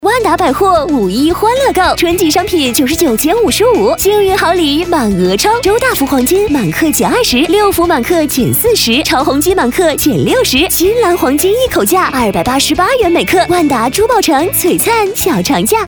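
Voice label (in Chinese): 广告女混录; 万达百货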